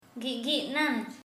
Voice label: "scratch", Sora

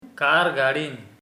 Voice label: "car", Sora

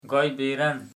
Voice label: joking

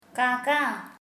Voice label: "crow", Sora

